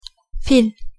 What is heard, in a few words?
"fil", Turkish